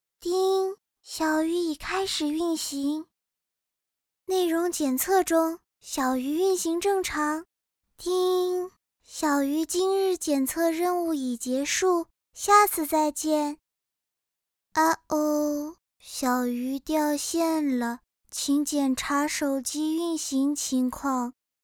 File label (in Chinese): 提示语